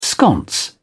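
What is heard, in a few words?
"sconce", British English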